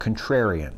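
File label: "contrarian", US English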